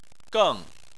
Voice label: "geng4", Chinese